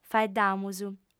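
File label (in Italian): "faeddamus", lingua sarda, female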